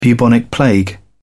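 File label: "bubonic plague", English, British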